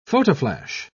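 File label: "photoflash", British English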